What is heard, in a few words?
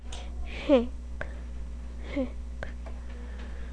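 "hip", spoken word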